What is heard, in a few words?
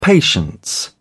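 "patience", British English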